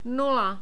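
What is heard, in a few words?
"nula", Czech, male; short vowels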